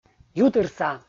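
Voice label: "ютырса", Komi, male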